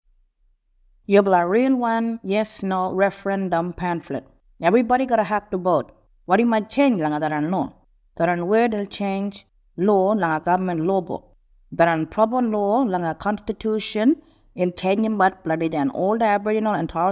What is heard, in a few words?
Kimberley Kriol; Referendum Booklet; Constitution Alteration